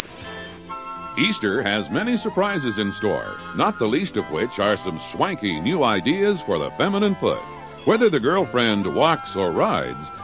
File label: newsreel shoe advertisement